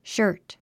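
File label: "shirt", English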